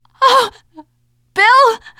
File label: grief, teen girl